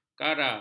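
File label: "kara", Malay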